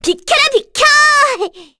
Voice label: Vox Skill, kr; Cecilia; kingsraid

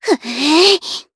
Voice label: Vox Casting, jp; Rodina; kings raid

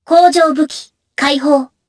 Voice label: Vox Skill jp; Kara; kings raid

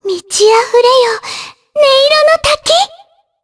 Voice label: Vox Skill, jp; Shea; kings raid

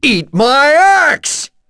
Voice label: Vox Skill, en; kings raid; voices heroes en

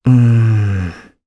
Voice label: Vox Deny, jp; Pavel; kings raid

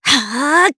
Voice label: Vox Casting jp; Cecilia; kingsraid

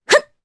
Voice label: Vox Casting, jp; Epis; kingsraid

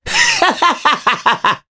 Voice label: Vox Happy jp; voices; heroes; Mitra; kings raid

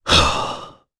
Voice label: Casting, jp; voices; heroes; Mitra; kings raid

